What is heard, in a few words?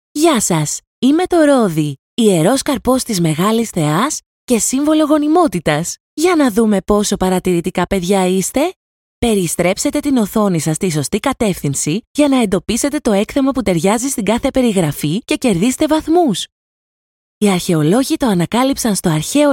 greek e-learning